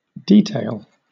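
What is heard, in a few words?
"detail", British English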